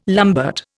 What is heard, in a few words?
"lambert", Indian